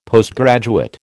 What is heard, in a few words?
"Postgraduate", American